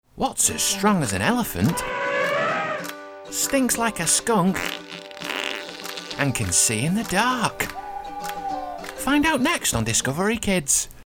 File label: Kids